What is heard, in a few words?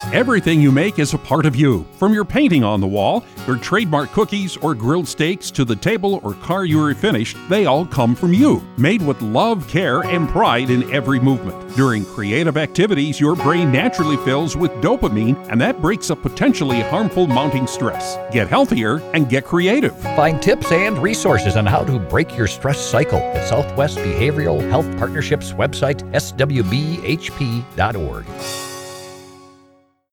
Male; Creative Expression